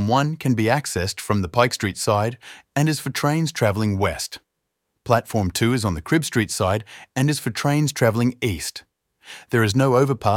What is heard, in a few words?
Station map audio description